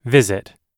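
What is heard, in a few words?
"visit", English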